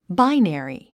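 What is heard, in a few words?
"binary", English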